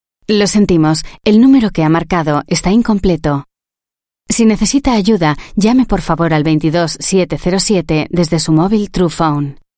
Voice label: IVR European Spanish Female